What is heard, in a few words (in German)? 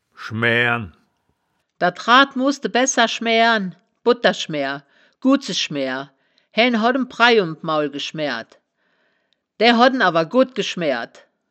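"schmaeaeren", wittlicher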